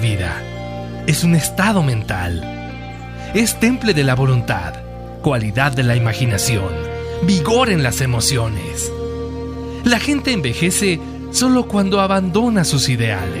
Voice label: locutor emotivo se joven

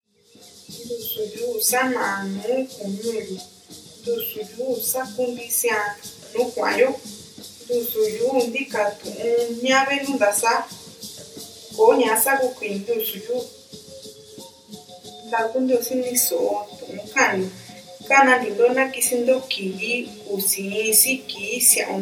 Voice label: spot mixteco; santa maria yucunicoco

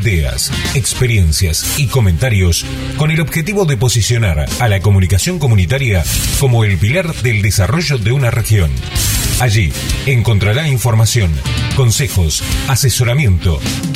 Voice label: promo comunitarias mezcla

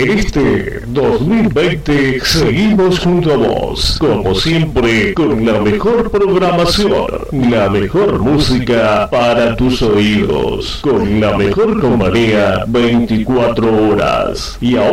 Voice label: demo de locución en off

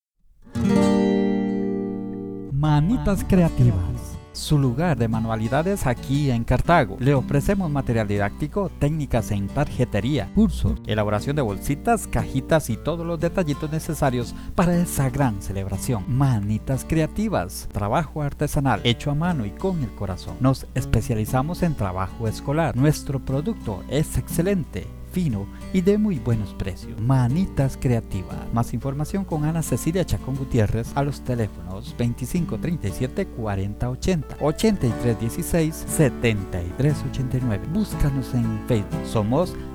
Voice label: comercial; manitaskreativas

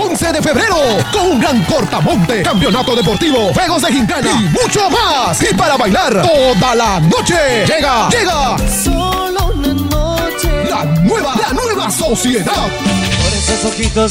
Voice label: spot fiesta sensuales cumbia

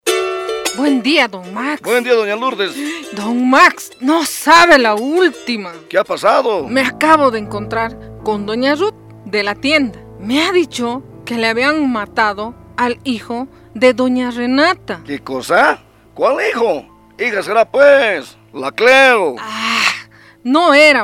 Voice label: cuña radial

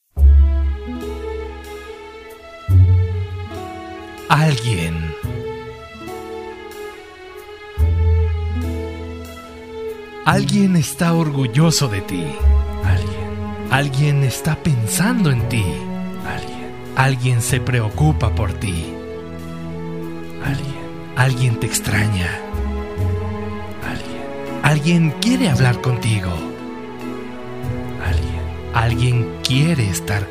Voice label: locutor emotivo

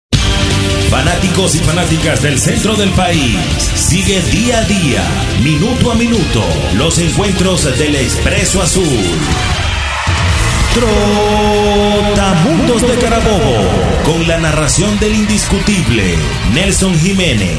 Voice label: PROMO; trotamundos

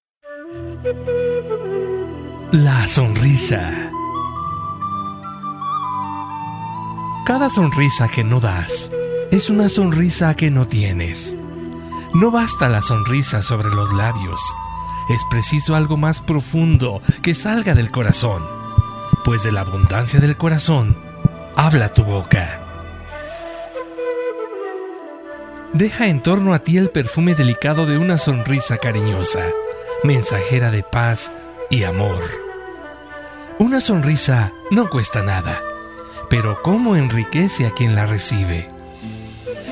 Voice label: locutor emotivo; la sonrisa

